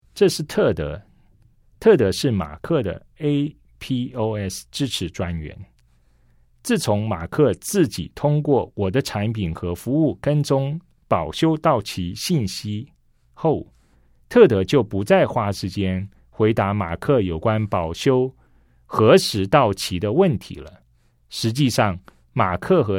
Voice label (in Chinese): CHINESE